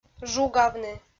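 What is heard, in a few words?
"жугавны", Komi, female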